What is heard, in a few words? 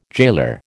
"jailer", American